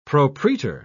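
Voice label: "propraetor", British English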